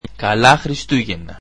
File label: greek Merry Christmas